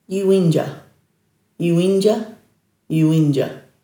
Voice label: "yuwindja", Dhurga; dulagaal